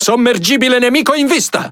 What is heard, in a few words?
It Speech Detection Enemy Sub; World Of Warships